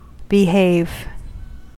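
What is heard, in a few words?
"behave", American English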